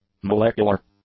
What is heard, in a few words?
"molecular", English; adjectives